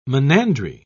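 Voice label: "monandry", British English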